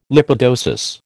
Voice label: "lipidosis", American